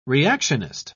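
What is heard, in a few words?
"reactionist", British English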